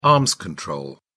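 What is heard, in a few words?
"arms control", British English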